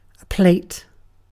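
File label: "plate", British English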